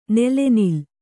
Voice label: "ನೆಲೆನಿಲ್ಲು", kannada

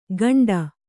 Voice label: "ಗಂಡ", Kannada

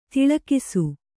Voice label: "ದಾಲಕ್ಷ", kannada

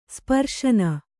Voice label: "ಸ್ಪರ್ಶನ", kannada

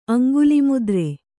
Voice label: "ಅಂಗುಲಿಮುದ್ರೆ", kannada

